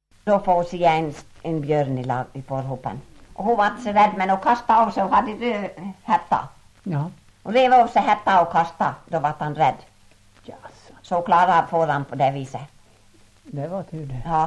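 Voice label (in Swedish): Ål Dalarna, dialekter; Folkminnen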